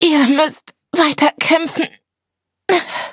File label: hit, de; cookie run